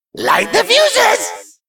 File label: Male Mech